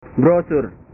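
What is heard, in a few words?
"brosur", Indonesian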